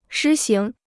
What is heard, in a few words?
"施行", Chinese